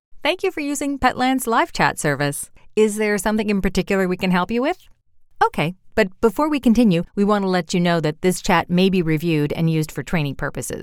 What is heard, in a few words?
Chatbot Friendly Authentic